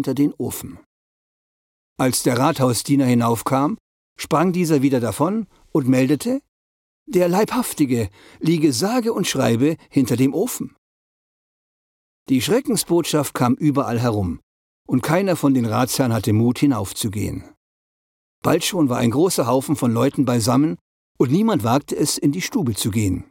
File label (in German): asperger esel neutral flott; Tonfabrik Berlin; Der Esel zu Asperg